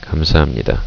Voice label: "thank you", korean